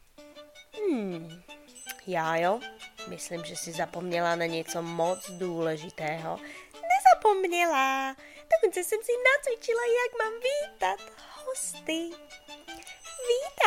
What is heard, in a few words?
Czech